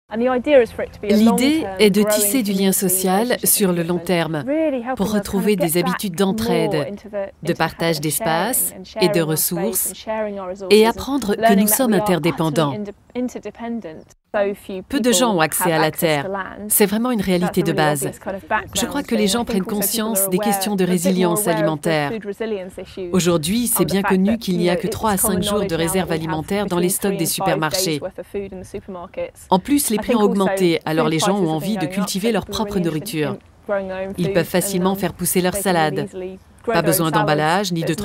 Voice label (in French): Voice over documentaire; En Transition